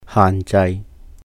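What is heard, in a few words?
"haahn jai 限制", Cantonese; limitation, restriction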